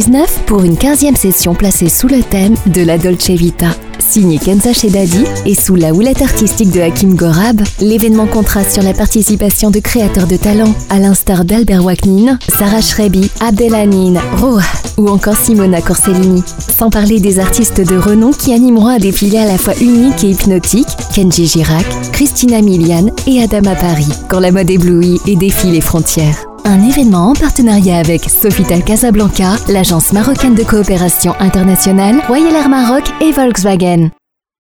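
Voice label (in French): luxe fashion show spot, voix publicité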